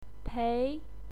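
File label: "pei", Mandarin Chinese, second tone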